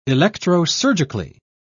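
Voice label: "electrosurgically", British English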